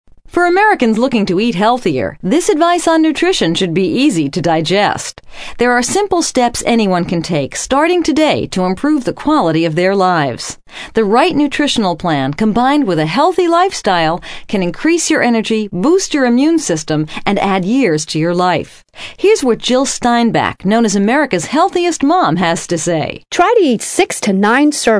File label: radio spot